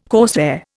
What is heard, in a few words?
"corsair", Indian